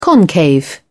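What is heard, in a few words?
"concave", English, British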